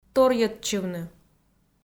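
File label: "торйӧдчывны", Komi, female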